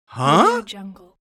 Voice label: male questioning "huh"; تعجب سؤالی مرد; human sounds